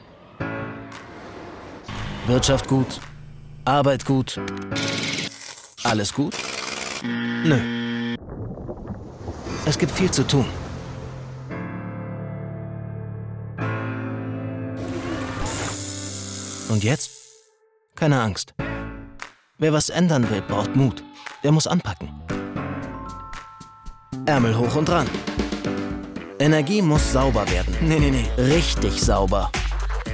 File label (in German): Werbung Wahlspot; Die Grünen